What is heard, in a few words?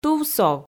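"тувсов", Komi, female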